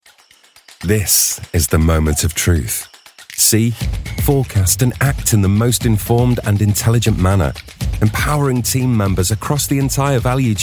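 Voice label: corporate, Gravitas Warm Trustworthy Voice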